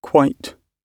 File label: "quite", pronunciation